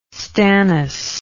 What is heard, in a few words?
"stannous", English